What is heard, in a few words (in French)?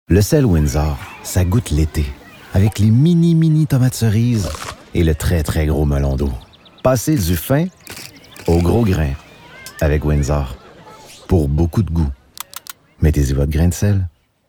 Voix douce sympathique chaleureuse Publicité; Sel Windsor